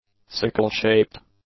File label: "sickle-shaped", English; adjectives